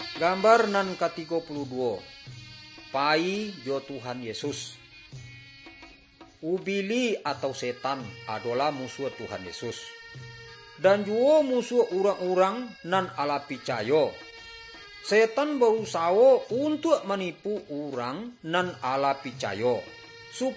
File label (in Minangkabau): good news minangkabau; bible